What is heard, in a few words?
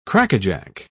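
"crackajack", British English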